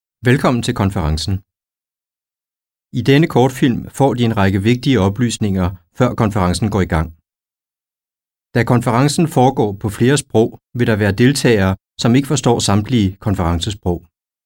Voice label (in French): danois